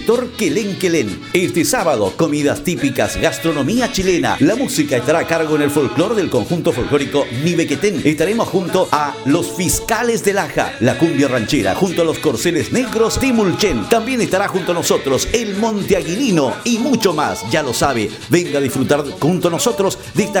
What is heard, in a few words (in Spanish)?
perifoneo trilla